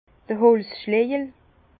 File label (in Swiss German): "holzschlejel", Bas Rhin; vin; mailloche